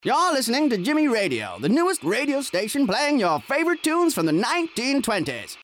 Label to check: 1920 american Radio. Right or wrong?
Right